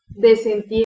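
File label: "desenti", Old Church Slavonic Spanish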